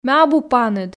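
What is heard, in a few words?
Komi sentences, female; ме абу паныд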